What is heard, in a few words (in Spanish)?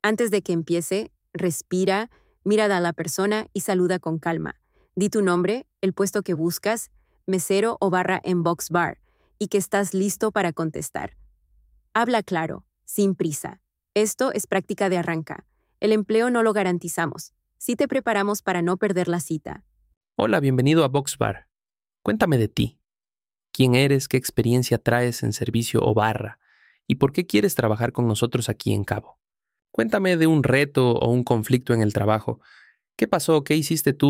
vox bar mock entrevista